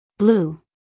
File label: "blue" US English, female; everyone-can-use-english